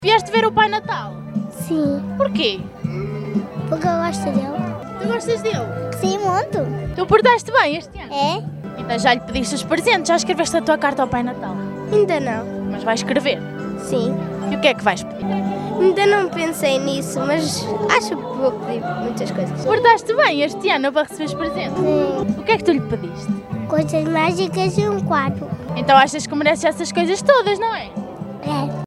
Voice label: vox pop crianças; pai natal veio a macedo de cavaleiros ouvir os pedidos das criancas

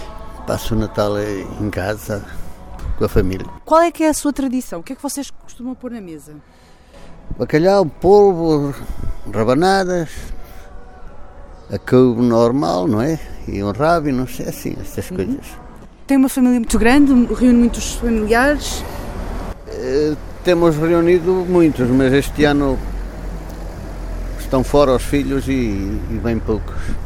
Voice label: vox pop; Em Macedo de Cavaleiros, o Natal vive-se de muitas formas, mas quase sempre com um ponto e

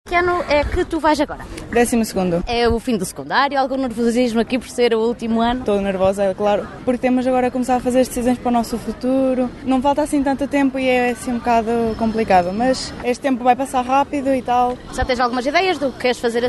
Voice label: vox pop alunos

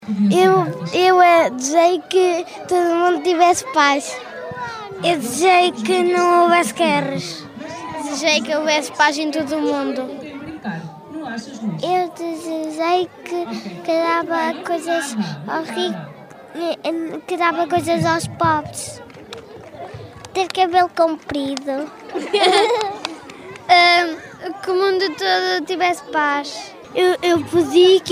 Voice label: vox pop crianças; Macedo de Cavaleiros voltou a fazer parte do percurso da Peace Run, A Corrida da Paz .

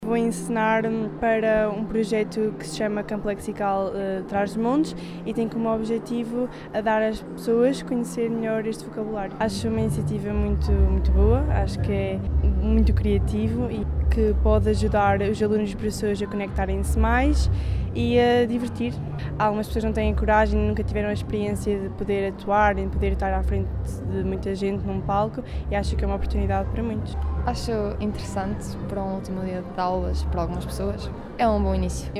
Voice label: vox pop alunos

